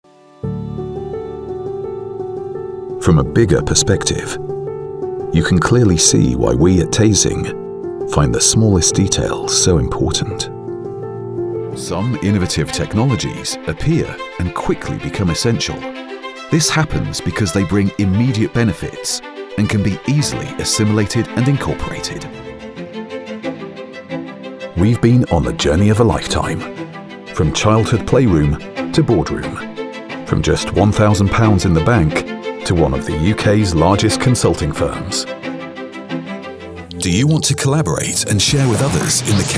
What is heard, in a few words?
Corporate Reel